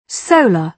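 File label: "solar", British English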